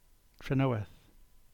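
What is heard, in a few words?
English; Trenoweth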